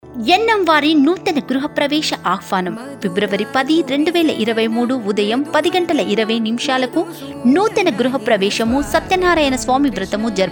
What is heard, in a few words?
voice ad House Warming Ceremony; Yennams